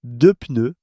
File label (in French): "deux pneus", French